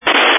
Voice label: Family Radio Service (FRS)